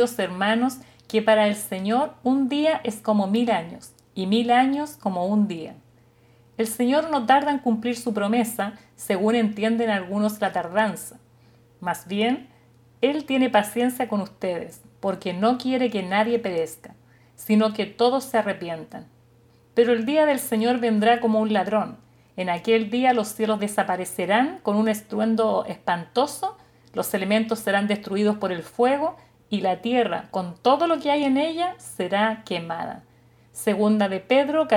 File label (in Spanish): devocional